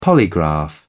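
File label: "polygraph", British English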